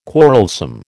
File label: "quarrelsome", American